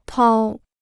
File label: "掏", Chinese